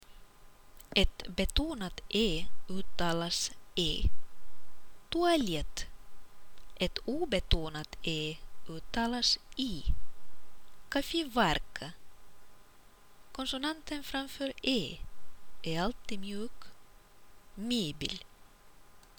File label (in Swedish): uttal vokalen e, ryska